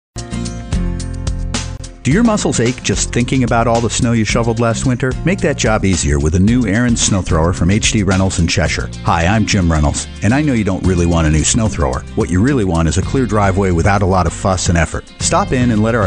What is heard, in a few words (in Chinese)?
美式男声 广告类